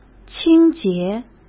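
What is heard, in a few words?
"清洁", Mandarin Chinese; chinese flashcards; hsk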